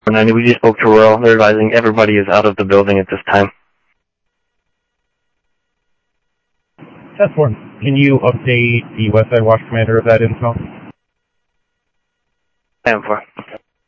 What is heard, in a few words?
Sheriff, scanner audio; SoutheastCenMesa; MCSO - EAST; Sheriff-EastCnty